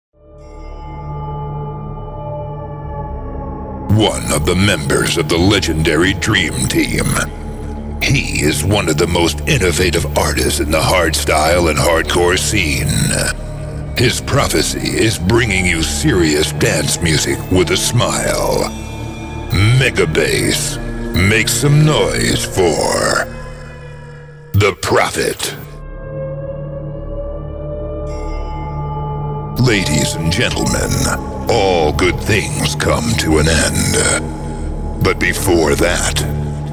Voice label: Dj Intro